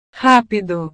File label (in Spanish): "rápido", Portugués